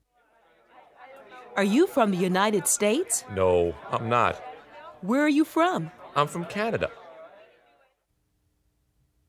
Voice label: Conversation; Conversation E F; new american streamline departures